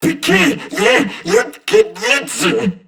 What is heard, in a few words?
Surprised Crewmen; Warframe; CorpusCrewmen; CrewmanD